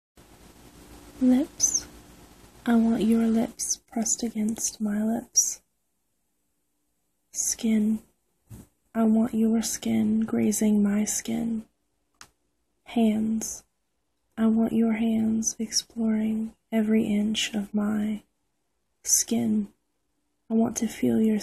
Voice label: Lips. [Original Poetry]; Erotic audio